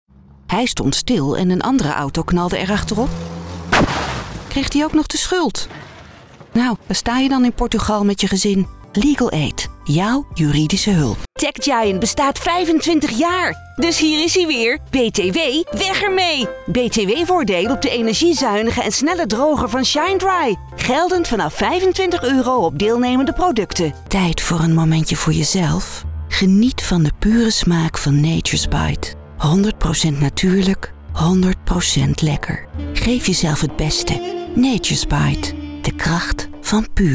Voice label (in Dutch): Commercialdemo, stemvoorbeelden